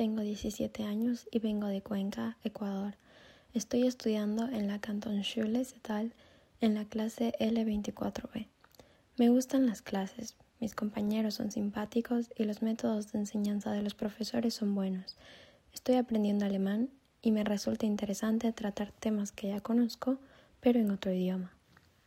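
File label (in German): Vorstellung Gastschülerin Deutsch Spanisch; Podcast Gastlernende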